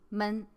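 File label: "men", Mandarin pinyin, neutral tone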